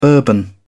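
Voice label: "bourbon", British English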